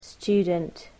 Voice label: "student", English